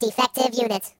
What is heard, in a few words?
Traitor, Robot; hedgewars